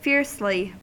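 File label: "fiercely", US English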